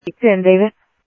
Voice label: Sheriff Central, scanner audio trunk